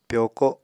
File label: "pyoko", Apatani